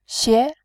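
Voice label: "xie", Mandarin Chinese, tone 2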